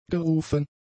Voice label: "gerufen", German; adjectives

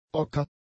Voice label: "ocker", German; adjectives

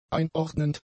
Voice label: "einordnend", German; adjectives

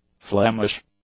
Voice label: "Flemish", english; adjectives

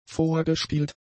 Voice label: "vorgespielt", German; adjectives

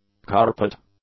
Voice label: "carpet", English; nouns

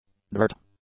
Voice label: "dirt", English; nouns

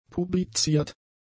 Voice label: "publiziert", German; adjectives

